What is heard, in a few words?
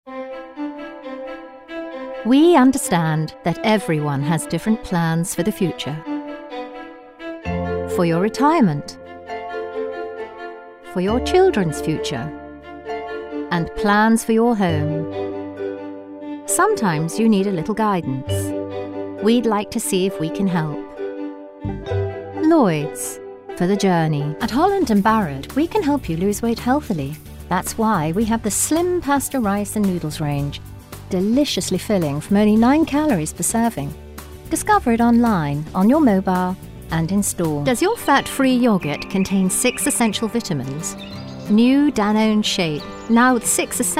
Commercial